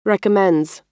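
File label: "recommends", US English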